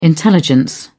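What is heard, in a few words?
"intelligence", British English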